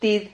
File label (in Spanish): "tid", Silabas